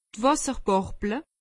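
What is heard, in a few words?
"wasserbarble", Alsatian; medecine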